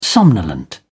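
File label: "somnolent", British English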